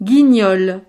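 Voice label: "guignol", French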